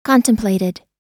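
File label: "contemplated", US English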